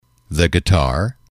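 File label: "the guitar", English, male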